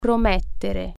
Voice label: promise, italian